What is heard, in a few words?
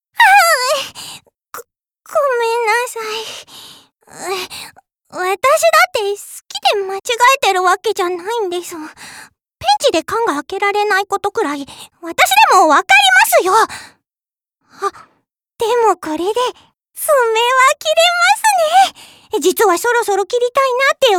voicesample Girl